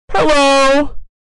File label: Heavy distorted "hello"; meme